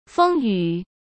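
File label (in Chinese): "风雨", Mandarin Chinese; chinese flashcards; hsk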